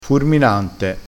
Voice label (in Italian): "furminante", vocabolario dialettale